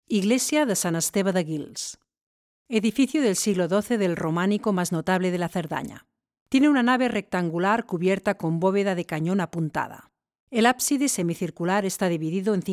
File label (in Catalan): locucio castella; sant esteve guils